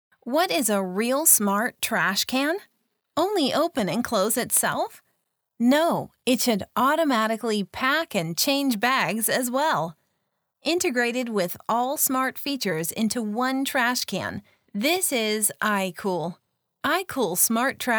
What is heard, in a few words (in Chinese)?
智能垃圾桶 英文解说词